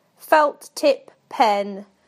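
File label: "felt tip pen", British English; PictureDictionary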